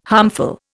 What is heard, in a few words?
"harmful", Indian